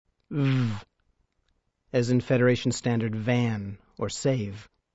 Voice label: Klingon sounds v, Letter v, as in save